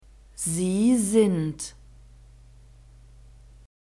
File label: "Sie sind", German